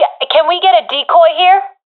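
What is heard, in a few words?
request decoy, professional female; vo; agents; Source Model Packs; Counter Strike Model Pack; CS2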